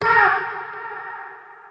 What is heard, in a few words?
big verby oh, male; VOCALS and SPOKEN WORD